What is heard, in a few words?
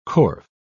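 "corf", British English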